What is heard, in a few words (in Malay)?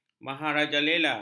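"maharajalela", Malay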